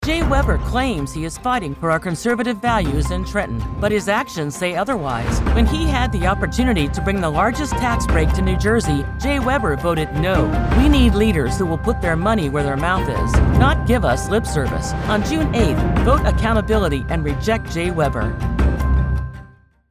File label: Republican Voiceover, Political Voices English; GOP